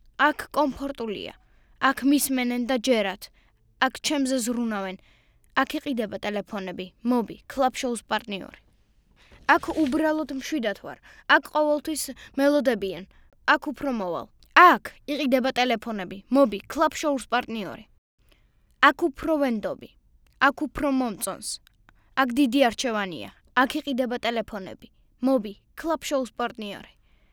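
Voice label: georgian male kid commercial; voice demos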